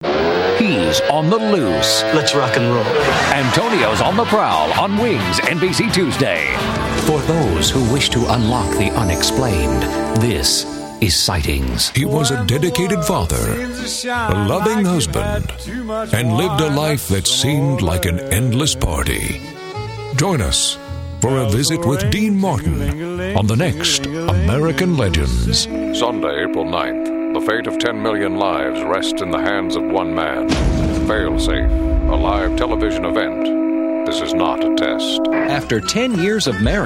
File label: promo